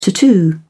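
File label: "tattoo", British English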